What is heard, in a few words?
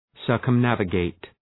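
"circumnavigate", English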